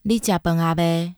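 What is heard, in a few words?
minnan, Chinese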